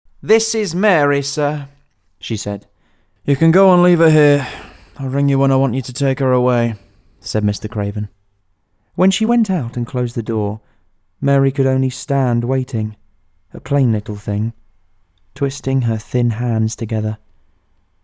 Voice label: Audiobook

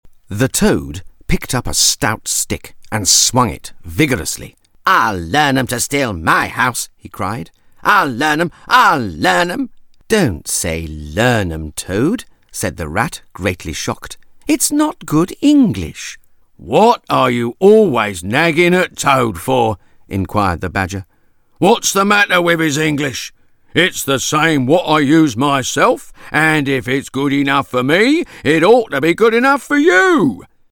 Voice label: Audiobook

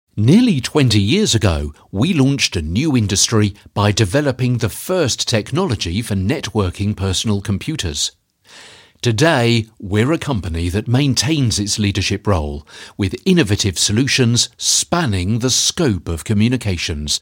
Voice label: Commercial

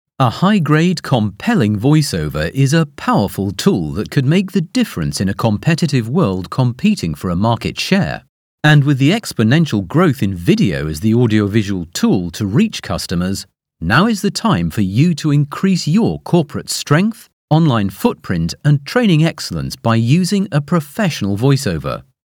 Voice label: Narration

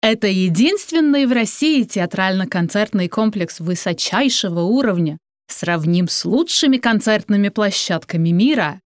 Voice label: RUS

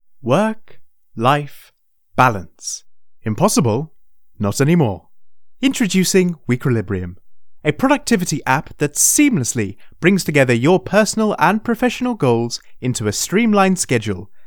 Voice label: Commercial

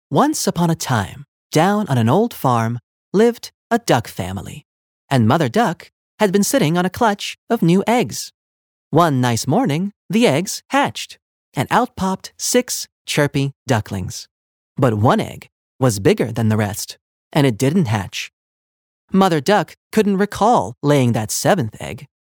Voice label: ENG Pasaka

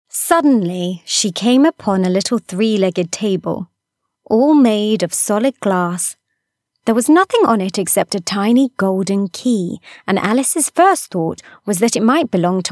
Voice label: Audiobook

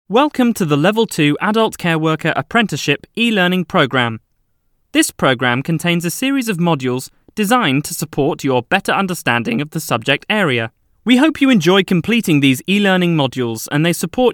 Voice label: Narration

